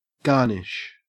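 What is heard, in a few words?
"garnish", Australian English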